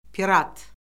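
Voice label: "pirat", Azerbaijani; Orfoqrafiya Lüğəti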